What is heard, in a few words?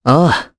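Vox, voices, Japanese; Pavel; kings raid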